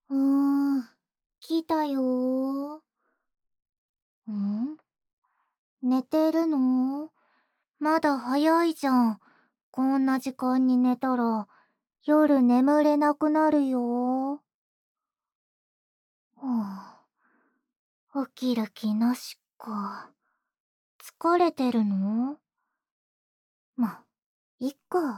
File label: ASMR